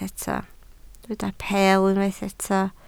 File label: "peel", Welsh